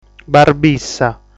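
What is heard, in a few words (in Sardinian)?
"Barbissa", Sardinian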